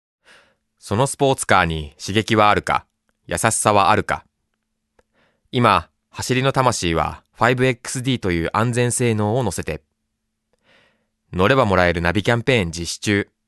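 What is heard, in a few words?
Voice Sample Narration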